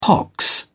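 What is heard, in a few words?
"pox", English, British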